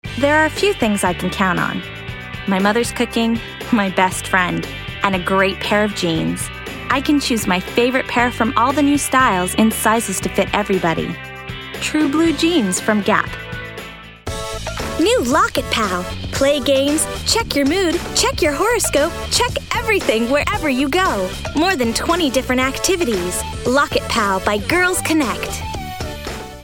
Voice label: commercial